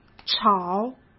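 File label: "吵", Mandarin Chinese; chinese-flashcards; hsk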